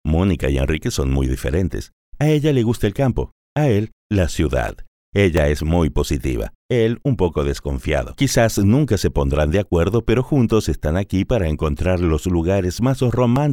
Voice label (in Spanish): Documental